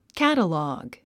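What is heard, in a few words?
"catalog", English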